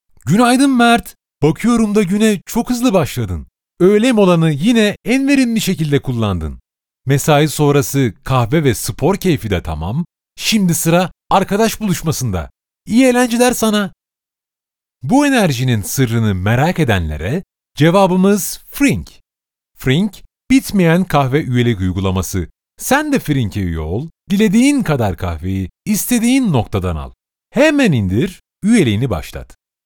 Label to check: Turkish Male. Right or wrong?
Right